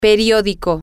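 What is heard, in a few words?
newspaper, Spanish, female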